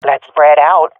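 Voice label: request spread out, swat female; Counter Strike Model Pack; CS:GO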